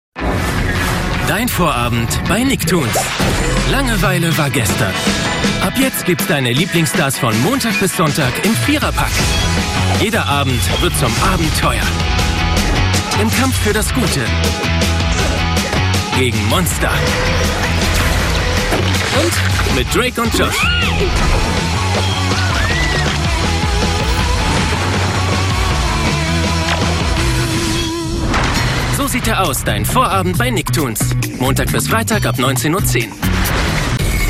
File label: Station voice